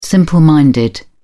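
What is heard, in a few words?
"simple-minded", British English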